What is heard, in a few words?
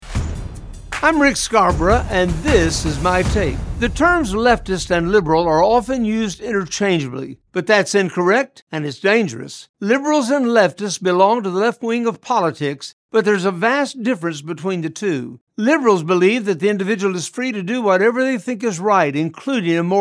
Radio Spot; Left vs Liberal